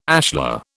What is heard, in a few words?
"ashlar", British